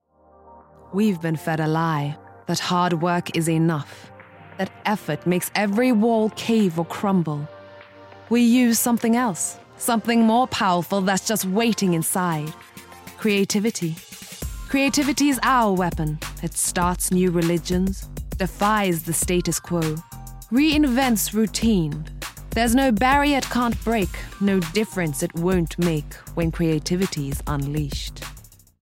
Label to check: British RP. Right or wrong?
Right